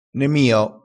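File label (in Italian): "nemio", dialettale crespinese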